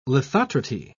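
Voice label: "lithotrity", British English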